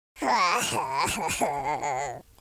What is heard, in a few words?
sad; Capone